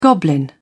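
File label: "goblin", British English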